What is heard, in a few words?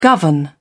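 "govern", English, British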